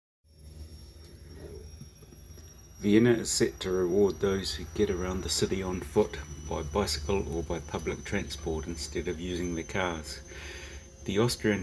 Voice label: News; NZ